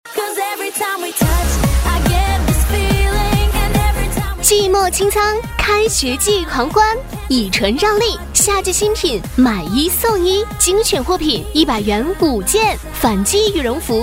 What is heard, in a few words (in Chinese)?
女 服装促销 活力动感; 买一送一; 以纯